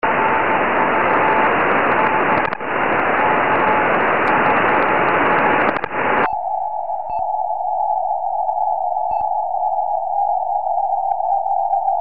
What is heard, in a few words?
23cm, tropo